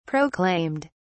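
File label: "proclaimed", English, British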